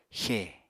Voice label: "xè", Apatani; v. suffice; be enough